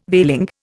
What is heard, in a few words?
"veiling", Indian